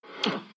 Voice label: pain; death prophet